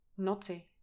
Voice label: "knotty", english pronunciation